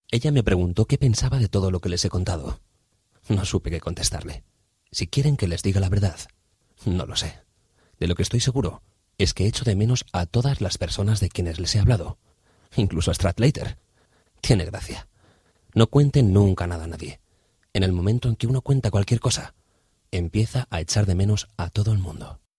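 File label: Spanish Spain audiobook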